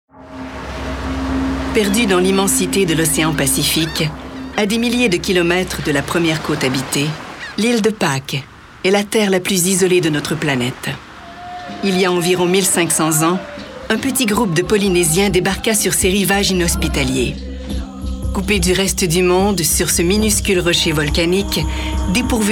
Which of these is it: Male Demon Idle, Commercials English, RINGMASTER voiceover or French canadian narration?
French canadian narration